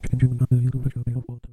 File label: jack and jill fade out